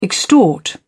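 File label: "extort", British English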